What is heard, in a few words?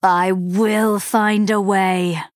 Female Night Elf; Hero; TRIGGER Spectral Sight